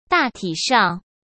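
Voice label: "大体上" Mandarin Chinese; hsk; chinese-flashcards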